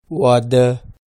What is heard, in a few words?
"waadu", Pashto